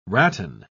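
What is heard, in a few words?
"ratan", British English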